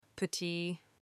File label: "petit", French